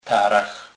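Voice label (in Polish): "tarach", kurpie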